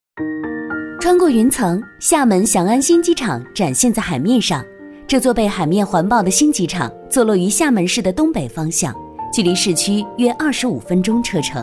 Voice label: 女 解说 轻松时尚 旅游; 普通话

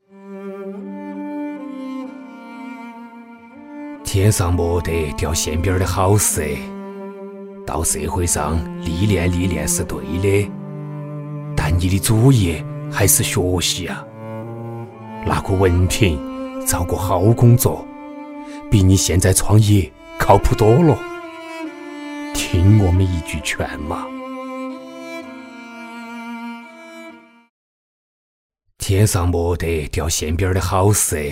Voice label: 男 人物旁白 四川话父亲 慈祥深情

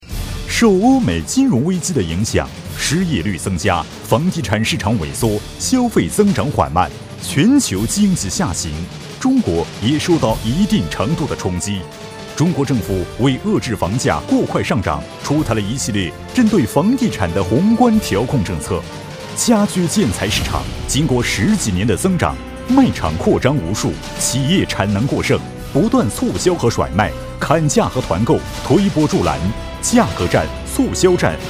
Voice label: 男, 国语男声配音, 浑厚磁性; 宁波采购大会

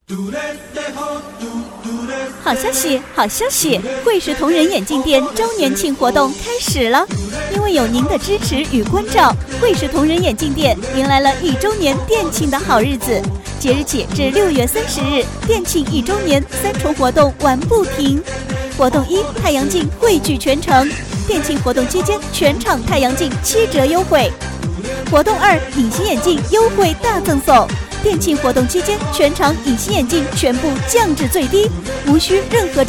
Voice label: 女78 广告 好消息活动型, 国语女声配音 年轻

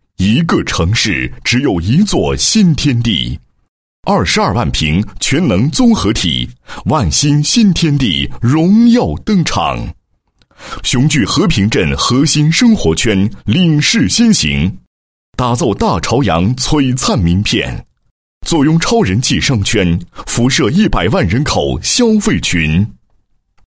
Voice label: 男 房地产广告 影视广告; 万欣新天地影视广告

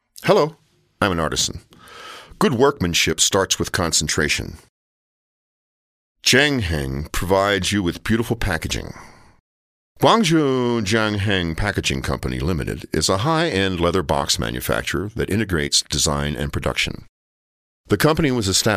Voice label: 美式男声

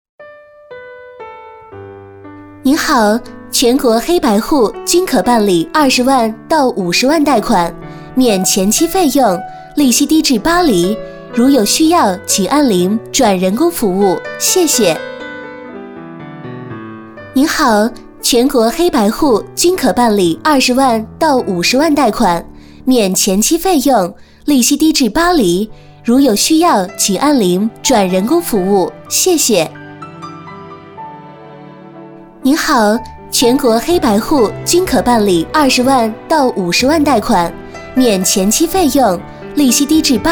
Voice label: 女 彩铃 贷款, 国语女声配音

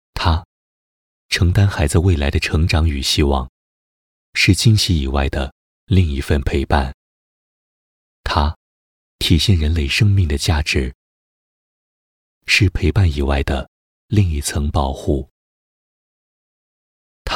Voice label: 男 温情 干声; 招商银行

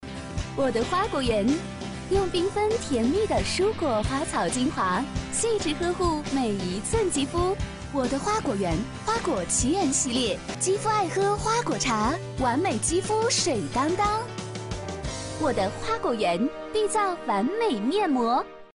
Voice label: 女, 广告, 产品广告; 我的花果园60

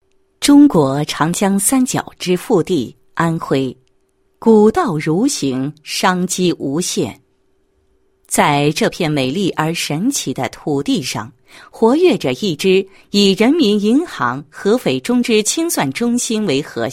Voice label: 女 解说词; 省支付系统宣传及业务拓展宣传片解说词

